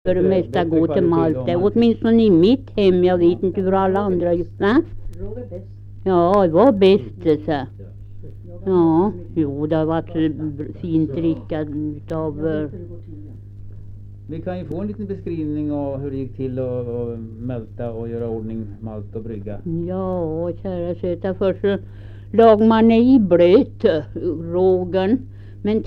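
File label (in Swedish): Riala Uppland, dialekter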